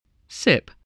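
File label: "sip", spoken word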